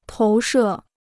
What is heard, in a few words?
"投射", Chinese